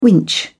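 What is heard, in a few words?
"winch", British English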